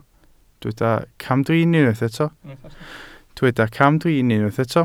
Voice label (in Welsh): "camdrin", Welsh